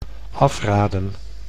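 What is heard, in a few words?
"afraden", Dutch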